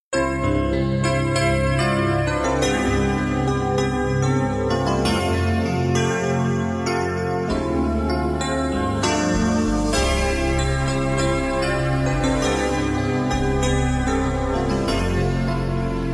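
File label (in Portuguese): romantico suave feminino, telemensagem; telemensagem romantica suave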